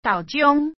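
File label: "豆醬 tāu chiòⁿ", Hokkien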